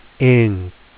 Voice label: in asin ink, phonics

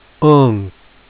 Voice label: un asin unk, Phonics Sounds

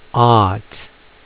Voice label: ought, Phonics Sounds

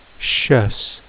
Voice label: tious, phonics

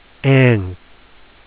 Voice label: an asin ank, Phonics Sounds

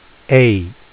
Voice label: name "a", 英語アルファベットの文字の名前; phonics

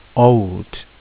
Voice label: olt oe l t, Phonics Sounds

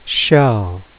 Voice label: cial, phonics

